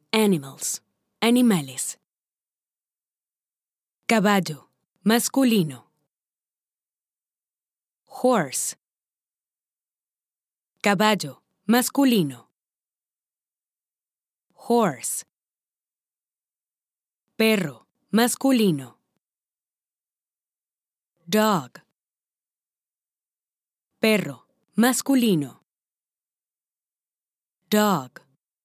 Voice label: Sample Spanish Audio Flash Cards